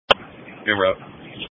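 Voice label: Police Patrol Dispatch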